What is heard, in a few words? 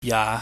"ya", pingyam